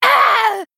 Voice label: Female Troll Death

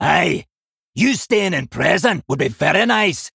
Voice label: Male Dwarf

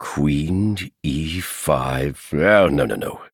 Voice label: Male Chess Piece Thinking; White King

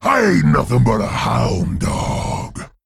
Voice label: Male Worgen Play

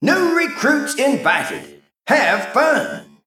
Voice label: Male Mech

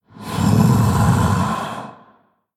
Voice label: Male Orc Thinking; Garrosh Deathknight

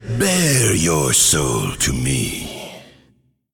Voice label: male demon, Play

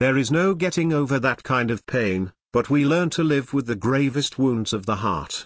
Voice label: Male Troll; Brukan; Scabbs

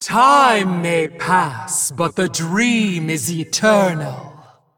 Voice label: Female Dragon Play

